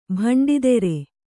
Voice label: "ಭಂಡಿದೆರೆ", kannada